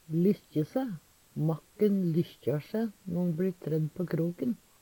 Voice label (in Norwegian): "lykkje se", Numedalsmål; Uttrykk; Veggli